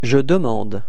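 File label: "demander je", French; frans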